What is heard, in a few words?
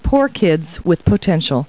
people, kid